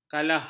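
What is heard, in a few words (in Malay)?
"kalah", Malay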